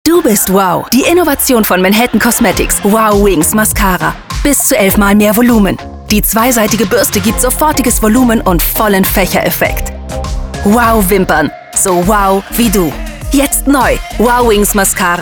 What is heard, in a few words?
advertisement; Manhattan Wow Wings